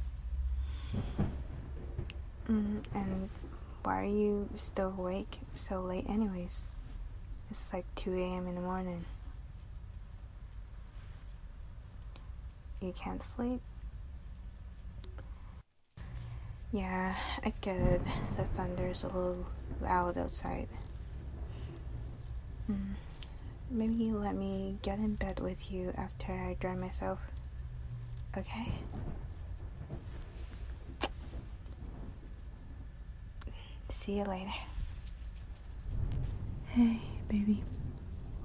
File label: F4A Falling Asleep in the Rain